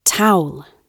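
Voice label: "towel", British English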